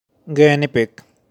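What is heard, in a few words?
"Guinea Pig", pronunciation; animals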